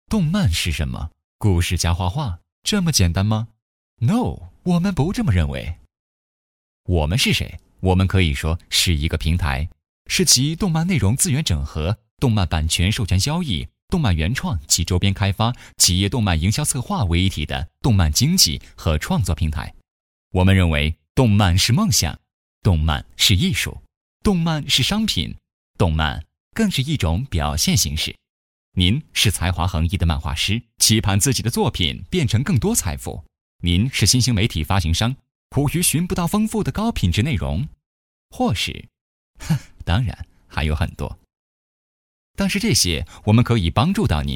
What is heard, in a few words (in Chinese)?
男声 年轻 专题 风趣轻松; 动漫是什么